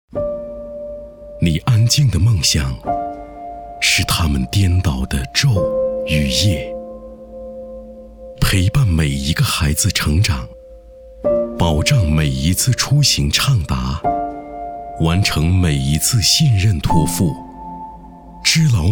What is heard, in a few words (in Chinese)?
磁性旁白有感情